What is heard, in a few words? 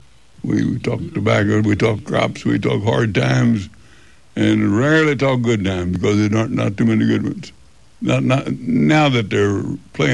Kentucky, dialect